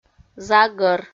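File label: "загӧр", Komi, female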